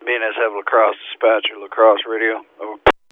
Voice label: La Crosse Radio